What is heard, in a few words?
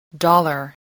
"dollar", spelling